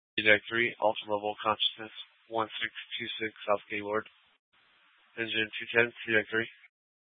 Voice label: FD Dpts VHF 156.240 Patch